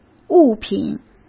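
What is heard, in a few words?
"物品", Mandarin Chinese; chinese-flashcards; hsk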